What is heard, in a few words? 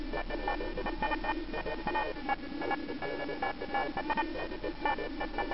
man computalk; co plague